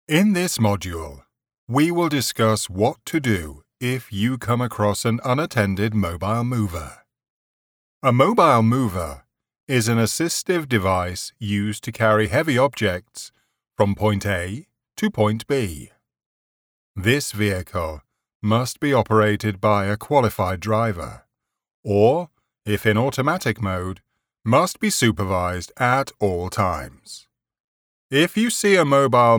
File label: english british e-learning